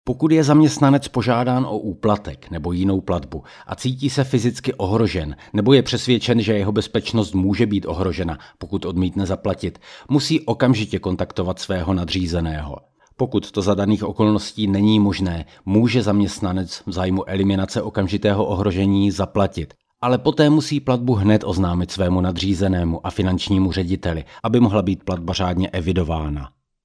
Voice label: Czech M Educational; voice over

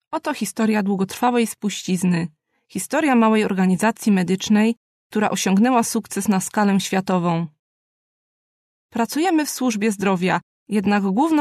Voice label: Polish F Narrational

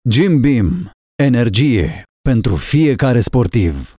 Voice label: Romanian M Explainer

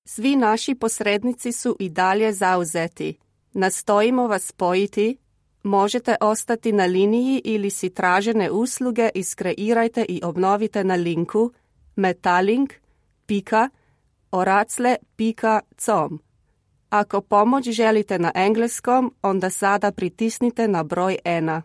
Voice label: Croatian F IVR

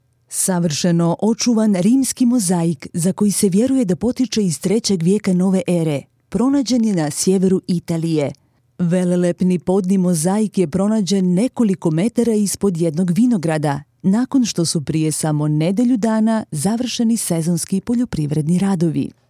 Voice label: Bosnian F Documentary; voice over

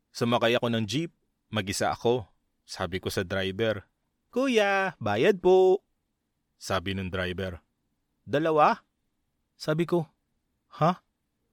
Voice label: Tagalog M Narration; filipino

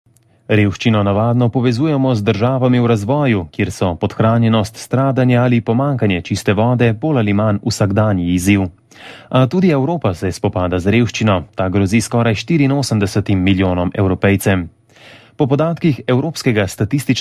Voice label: Slovenian m Narration

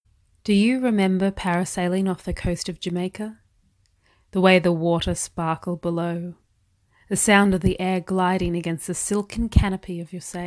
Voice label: English Aus F Commercial; accent of australia

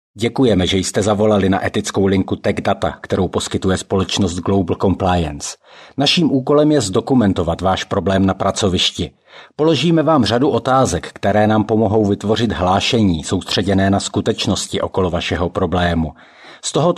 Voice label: Czech M corporate; voice over

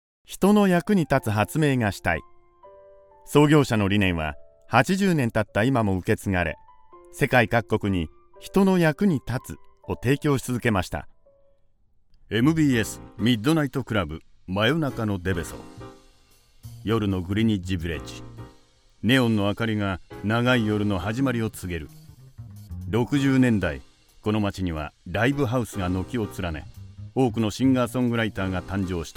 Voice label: Japanese M Documentary

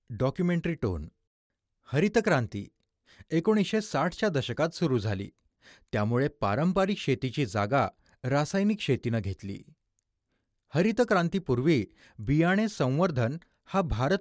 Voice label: Marathi M Corporate

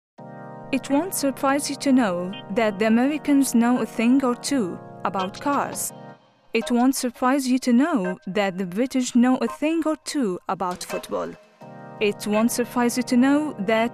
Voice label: Arabic Eng F Commercial; voice over

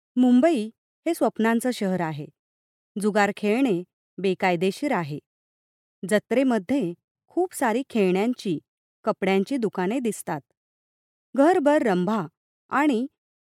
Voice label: Marathi F

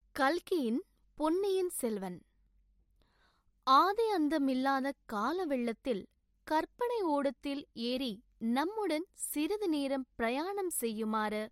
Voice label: Tamil F Corporate; voice-over

